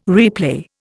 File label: "replay", Indian